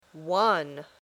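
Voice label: "won", English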